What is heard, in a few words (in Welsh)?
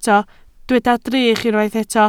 "drych", Welsh